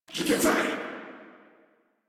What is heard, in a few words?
Surprised Sniper, en